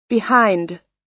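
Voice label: "behind", US English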